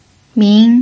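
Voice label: "min", pinyin, second tone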